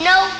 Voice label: "no", kid; Voice; expletives

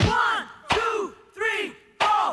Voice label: 1 2 3 4 group with notes; numbers and letters

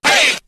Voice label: "hey" male

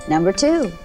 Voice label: "number two", female; Voice; numbers and letters

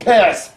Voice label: "piss", tourettes

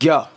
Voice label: "yo", voice

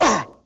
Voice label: "uh", male

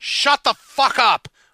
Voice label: shut the fuck up male; expletives

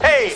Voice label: "hey", Voice

